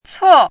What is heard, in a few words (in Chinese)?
"cuo4", 汉字语音; chinese-voice